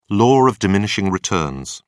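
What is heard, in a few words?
"law of diminishing returns", British English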